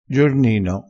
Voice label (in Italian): "giornino", dialettale crespinese